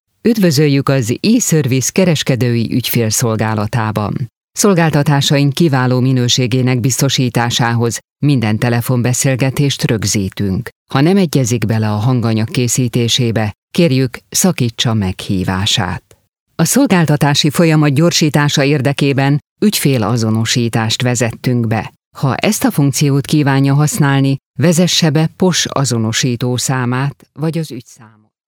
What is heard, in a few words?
eService Hungarian